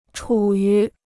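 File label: "处于", Chinese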